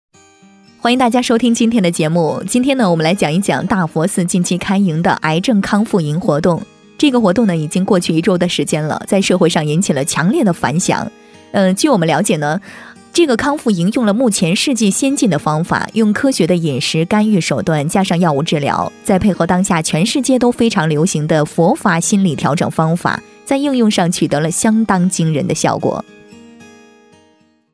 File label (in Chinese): 女 电台主持 主持人-随和讲解, 素人自然